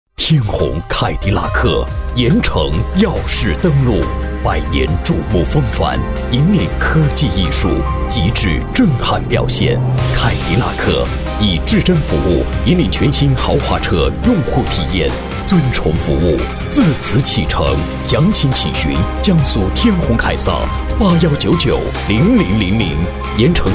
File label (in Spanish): Locutor varón chino